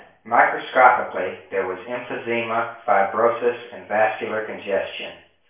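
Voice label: male reverberant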